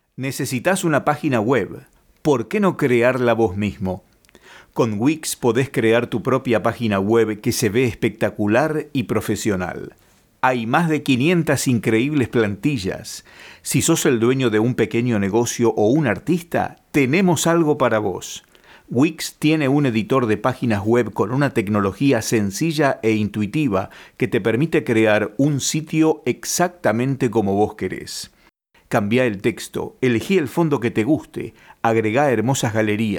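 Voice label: Spanish Arg, Spanish Argentina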